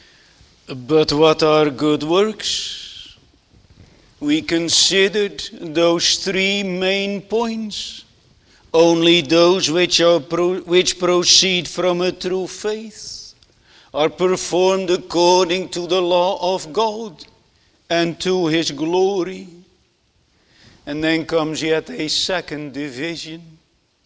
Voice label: Sermon Continued